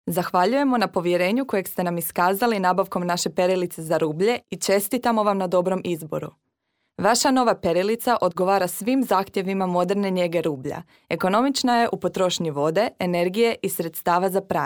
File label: female croatian voice over talent narrative